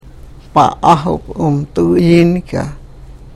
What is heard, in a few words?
karuk